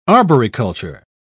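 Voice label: "arboriculture", British English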